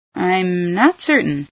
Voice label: not certain, female sci